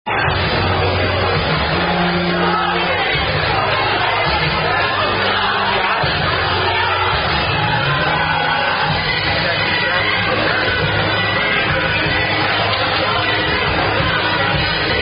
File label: Woman Playing Are You A Cardinal; Cardinal Puff